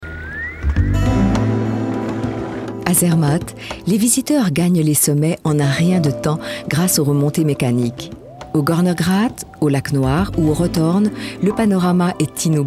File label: OFF FR CH